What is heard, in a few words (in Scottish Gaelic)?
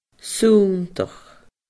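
"sunndach", Scottish Gaelic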